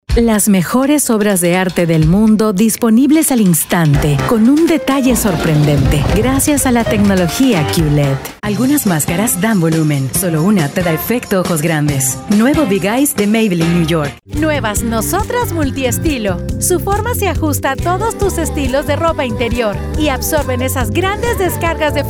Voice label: female spanish peruvian neutral spanish latam announcer compilation; devine voices